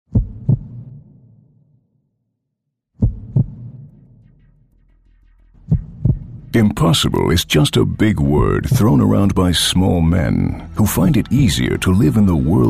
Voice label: Eng US Kommentar Kraftvoll

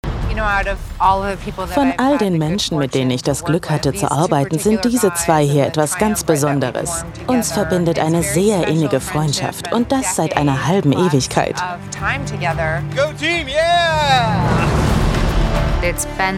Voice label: Neutral

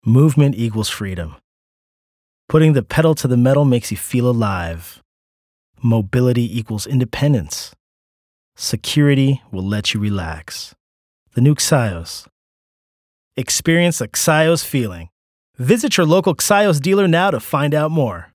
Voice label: EngUS Sanft

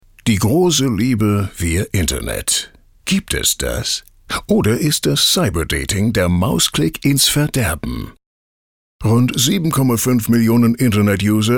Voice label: Akzent US

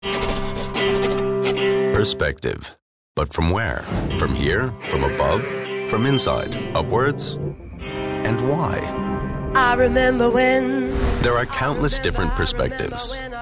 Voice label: EngUS Freundlich; Linde

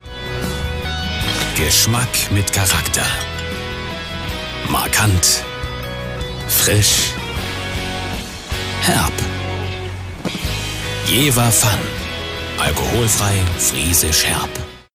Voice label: Neutral

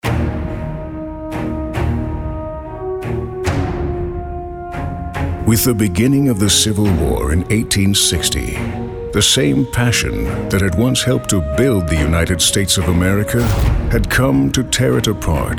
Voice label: Eng US Episch

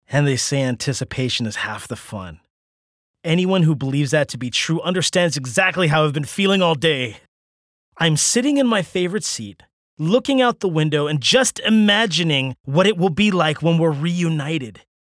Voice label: EngUS Dynamisch; Lifes Little Pleasures

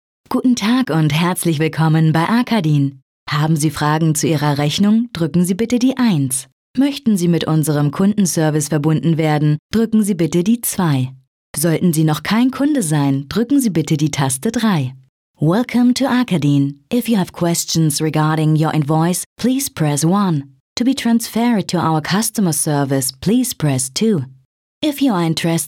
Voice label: Neutral

